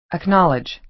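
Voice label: "acknowledge", American English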